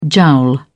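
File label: "jowl", British English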